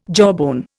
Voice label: "jawbone", Indian